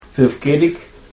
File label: "thufkirik", karuk